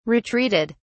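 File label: "retreated", British English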